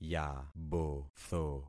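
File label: "yabotho", concatenated syllables; Affixing experiment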